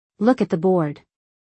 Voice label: Look at the board, english; Time and Routine